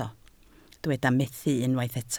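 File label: "methu" Welsh, spoken word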